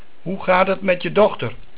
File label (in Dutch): "Dochter" Dutch